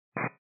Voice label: 122 725MHz, scanner radio